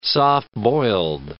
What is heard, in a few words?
"soft-boiled", English